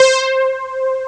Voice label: voice hall